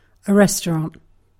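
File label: "restaurant", English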